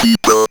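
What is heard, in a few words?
ROBVOX, robot voice; E-Lab; X Static Goldmine 1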